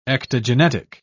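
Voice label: "ectogenetic", British English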